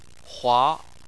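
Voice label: "hua", Chinese, second tone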